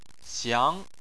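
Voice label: "xiang", Chinese, second tone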